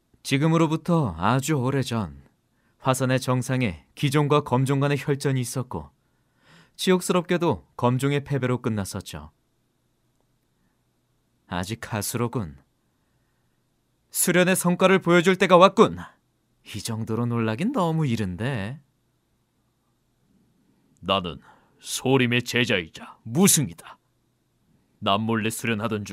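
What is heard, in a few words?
Korean, male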